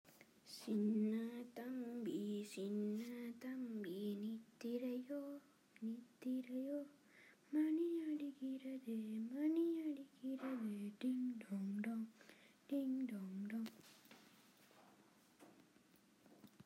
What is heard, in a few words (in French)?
tamil